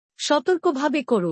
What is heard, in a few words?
"Do it carefully", Bangla; Basic Greetings and Courtesy